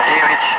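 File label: "5", spoken; Ka-50; Wingman; Digits; DCS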